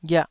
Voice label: "ghiah2", Taiwanese